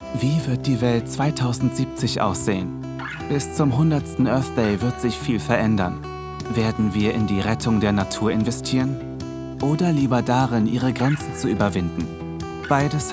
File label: Thinking